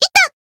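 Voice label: damaged, Japanese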